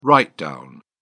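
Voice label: "write down", English, British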